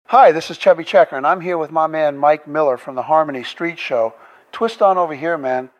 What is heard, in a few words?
radio promo